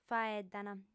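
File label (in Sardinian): "faeddant", female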